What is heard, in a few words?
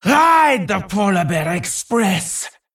Female Vrykul Play